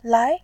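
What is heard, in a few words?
"lai2", Mandarin Chinese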